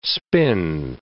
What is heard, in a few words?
"spin", English